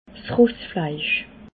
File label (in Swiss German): "rossfleisch", alsace